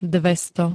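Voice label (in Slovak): "dvesto", Slovak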